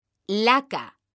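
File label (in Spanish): "LACA", female; mekanta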